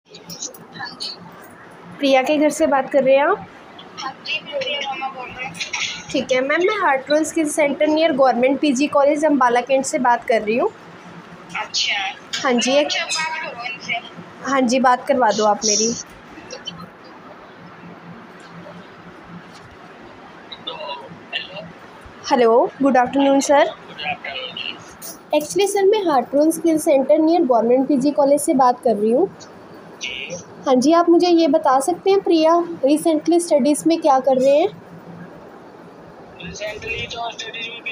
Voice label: call recording